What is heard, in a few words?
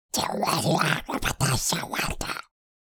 Alien creature talking